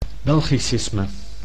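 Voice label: "belgicisme", Dutch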